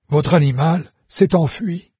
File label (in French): pet flee, VOICES fr NARRATOR; Fate